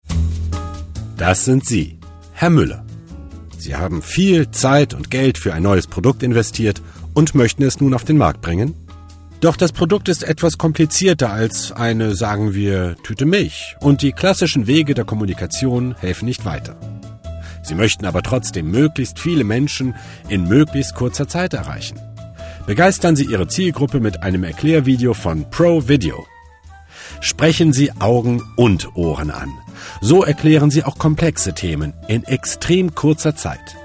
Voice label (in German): Kommentar Freundlich